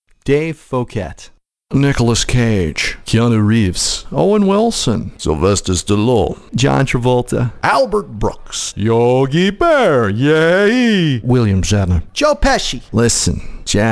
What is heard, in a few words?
Characters, Male voices